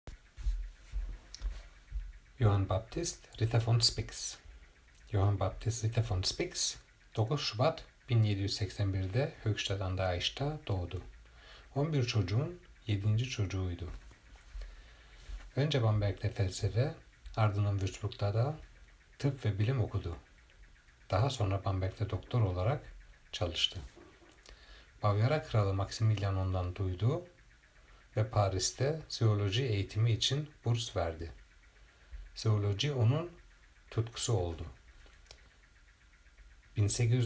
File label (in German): türkisch; Das Leben unseres Namensgeber in verschiedenen Sprachen und Dialekten.